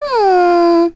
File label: Vox Deny, en; kings raid; voices heroes en